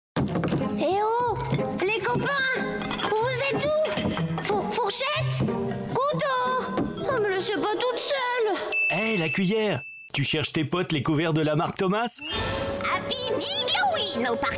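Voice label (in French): Narration, Comédienne, Voix off; Narration DECLICS - Arte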